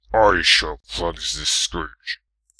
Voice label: I Shall Cleanse This Scourge, human male heroic; customnpcs